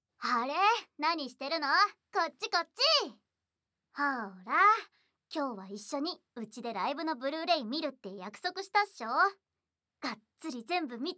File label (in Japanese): ギャル PVボイス; ASMR; アオハル学園ギャル